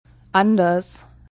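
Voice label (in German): "anders", German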